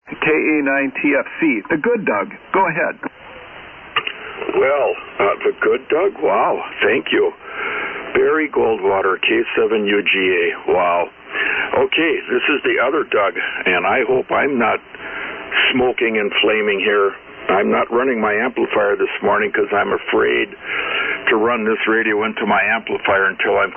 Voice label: Yaesu FT-102 & Shure 444 Mic; Caledonia, Wi.